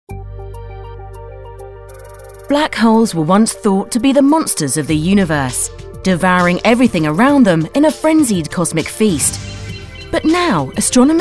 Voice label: NARRATIVE DOCUMENTARY CORPORATE

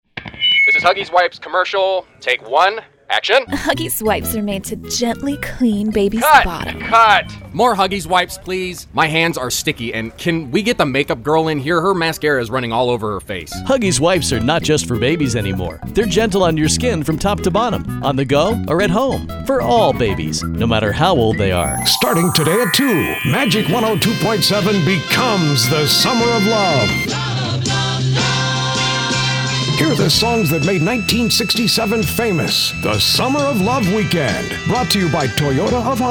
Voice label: Production Spots Promos

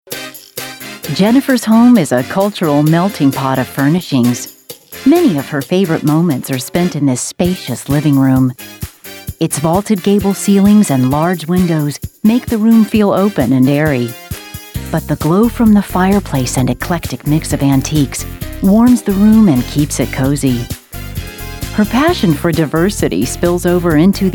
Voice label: Narration VO

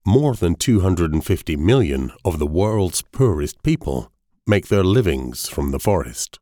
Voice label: dry no compression

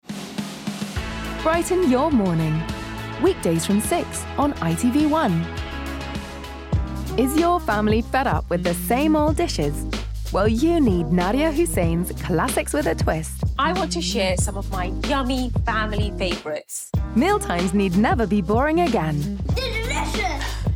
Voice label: Promo Reel

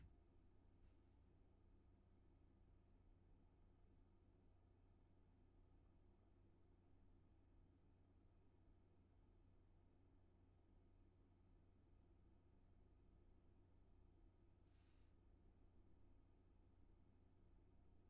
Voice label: Home Studio Test 48khz 24bit

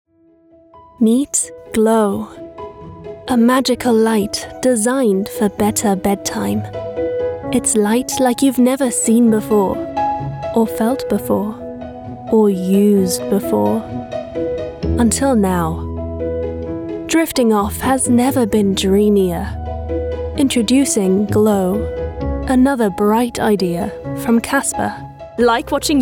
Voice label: British Commercial Reel

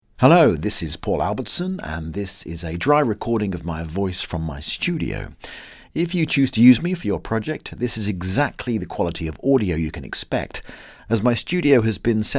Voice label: Dry Audio

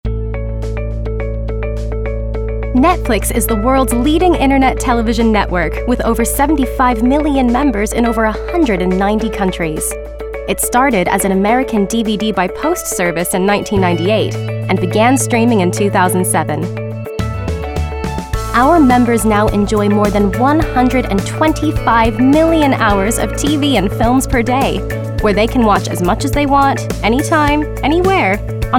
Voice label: NARRATIVE

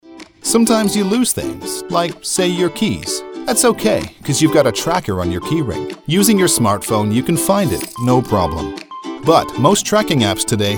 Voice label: explainer video

